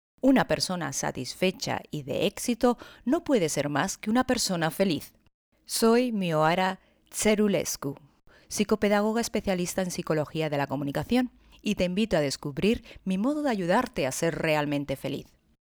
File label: spanish e learning